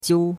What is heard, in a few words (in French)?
"jiu1", chinois; Cours-de-Chinois